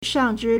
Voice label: "shang4zhi1", Chinese